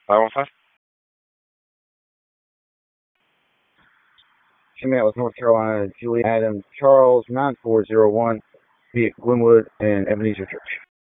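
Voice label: Police Southwest District Dispatch, p25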